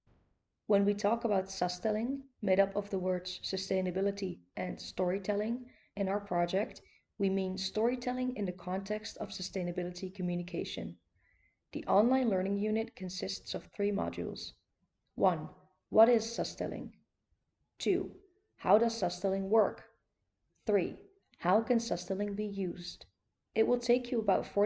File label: SLIDE INTRODUCTION ENG, e-learning